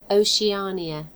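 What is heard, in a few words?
"oceania", British English; gcompris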